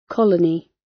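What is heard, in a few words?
"colony" British; Space, the planets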